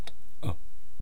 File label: "u", East Cree syllabics